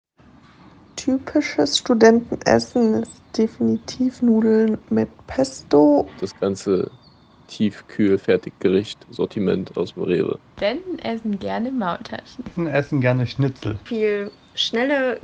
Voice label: VOXPOPS; studentenfutter; ernaehrung